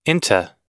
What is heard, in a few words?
"into", US